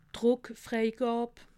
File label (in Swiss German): "druckfreigab", alsace